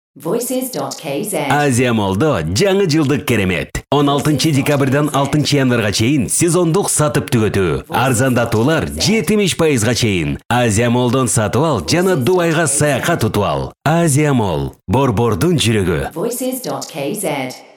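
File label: Kyrgyz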